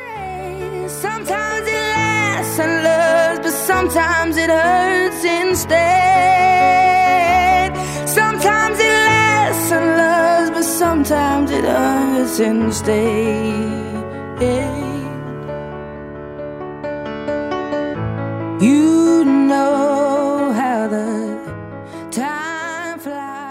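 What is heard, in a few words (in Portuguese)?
ANIV AMIGO male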